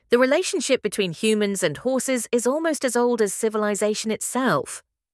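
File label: British female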